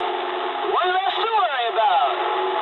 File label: eng player killed enemy mood low, speech eng; War Thunder